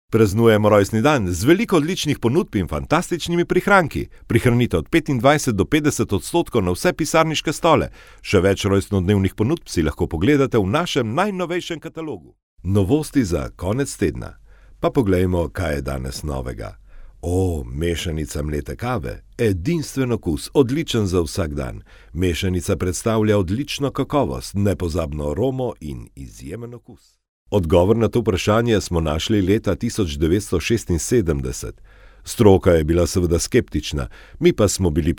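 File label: Slovenian, male; Professionelle Sprecher und Sprecherinnen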